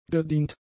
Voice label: "gedient", German; adjectives

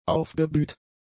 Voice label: "aufgeblüht", German; adjectives

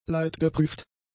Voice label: "leidgeprüft", German; adjectives

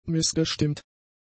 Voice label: "missgestimmt", German; adjectives

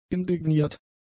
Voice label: "indigniert", German; adjectives

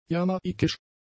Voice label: "jamaikisch", german; adjectives

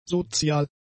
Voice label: "sozial", german; adjectives

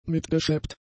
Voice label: "mitgeschleppt", German; adjectives

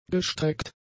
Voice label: "gestreckt", german; adjectives

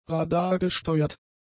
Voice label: "radargesteuert", German; adjectives

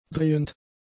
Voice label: "drehend", German; adjectives

